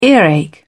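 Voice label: "earache", British English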